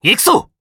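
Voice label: skill, Japanese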